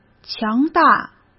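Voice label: "强大", Mandarin Chinese; hsk; chinese-flashcards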